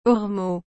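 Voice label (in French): "ormeau", French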